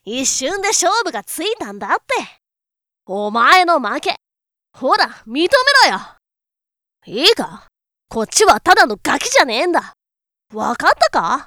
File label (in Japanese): 少年